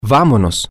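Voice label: we go, Spanish, male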